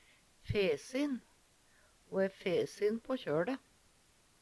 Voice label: "fesin", Numedalsmål; Ho æ fesin på kjøLe.

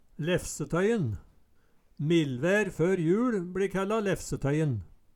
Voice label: lefsetøyen, Numedalsmål

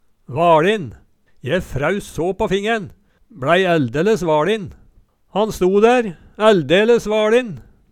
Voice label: vaLin, Numedalsmål

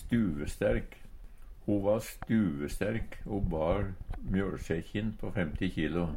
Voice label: stuvesterk, Numedalsmål; Adjektiv

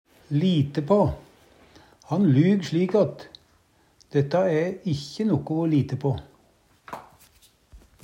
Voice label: lite på, Numedalsmål; Verb

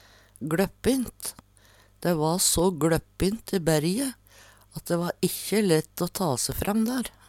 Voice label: "gLøppin", Numedalsmål; hol

